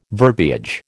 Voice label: "verbiage", American

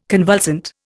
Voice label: "convulsant", Indian

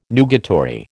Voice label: "nugatory", American

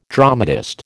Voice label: "dramatist", American

